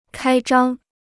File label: "开张", Chinese